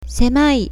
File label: "semai", Japanese